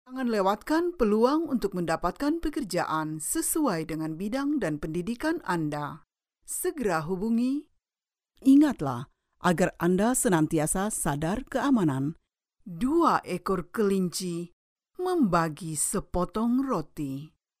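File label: Indonesian, female, voice over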